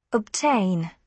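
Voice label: "obtain", British English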